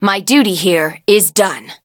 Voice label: victory en; cookie run